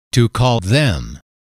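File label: to call you them, male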